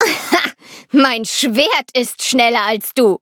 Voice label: loading, de; Cookie Run